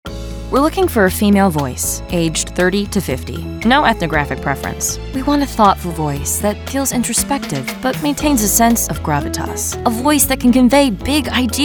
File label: Commercial